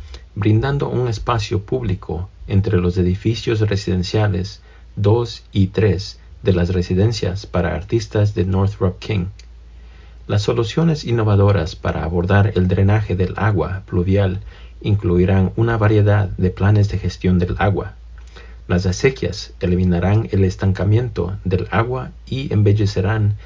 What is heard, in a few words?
Stormwater — Español; nkb stormwater